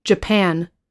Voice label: "japan", us

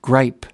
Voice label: "gripe", us

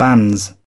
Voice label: "banns", British

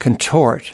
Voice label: "contort", US pronunciation

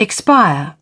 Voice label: "expire", British

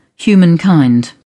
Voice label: "humankind", British

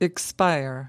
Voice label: "expire", us

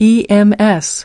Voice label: "ems", us

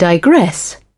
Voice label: "digress", British

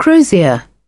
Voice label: "crozier", British

